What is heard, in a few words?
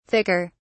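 "thicker", British English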